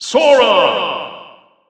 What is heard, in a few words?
English Announcer; Sora; SSBU